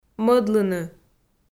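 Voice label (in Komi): "мӧдлыны", Komi, female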